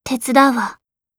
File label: yes, voice commands; svencoop; Blanc